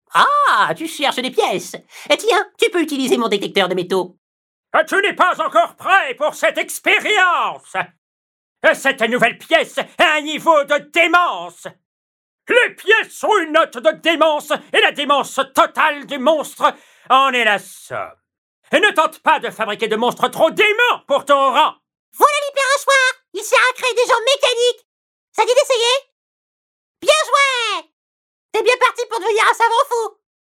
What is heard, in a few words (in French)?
Voix animation